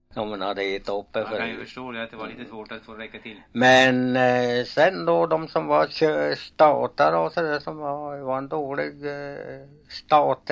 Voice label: dialekter; Folkminnen; Svenska sagor

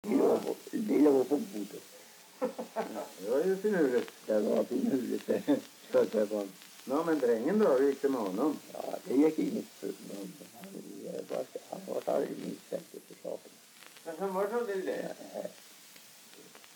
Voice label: dialekter; Folkminnen; Svenska sagor

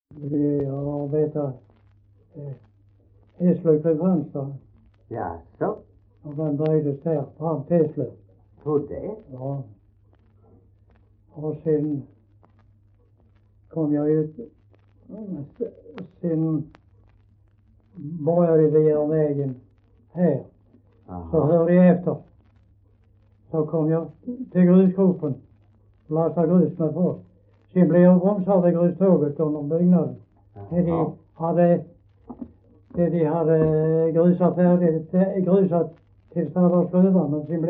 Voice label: Hallaröd, dialekter; Folkminnen; Svenska sagor

